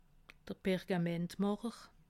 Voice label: "pergamentmacher", alsace

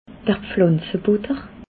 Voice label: "pflanze butter", alsace

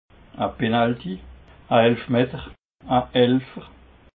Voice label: "penalty", alsace

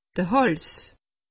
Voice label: "hals", alsace; medecine

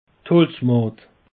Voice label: "holzmad", alsace; peche

